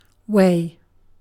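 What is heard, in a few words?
"way", British English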